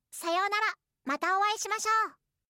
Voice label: anime, Anime Character Farewell Voices! "Sayonara" Phrases; anime characters say goodbye